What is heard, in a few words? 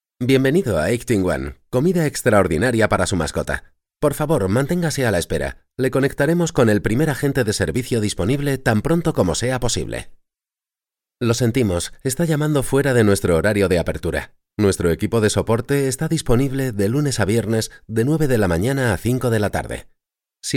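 Spanish European IVR; IVR/Phone systems; Male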